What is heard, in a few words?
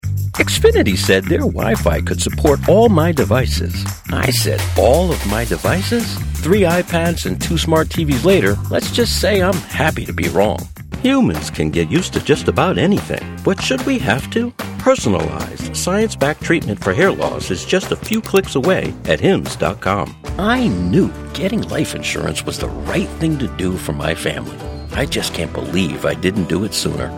Commercial